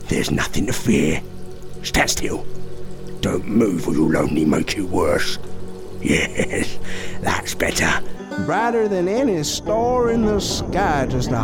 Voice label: Character; British, London-based Voice Actor; Character Demo Reel